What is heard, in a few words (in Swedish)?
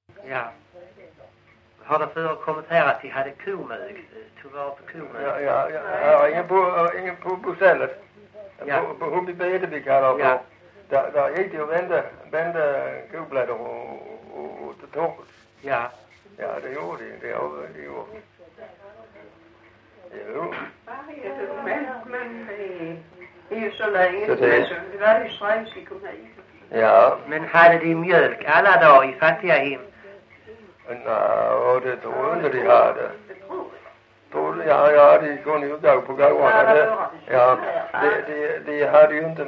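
Lilla Harrie Skåne, dialekter; Folkminnen; Svenska sagor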